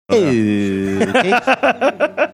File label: saying okay funny